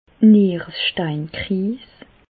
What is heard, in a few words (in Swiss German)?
"nieresteinkriis", Bas Rhin; medecine; colique nephrétique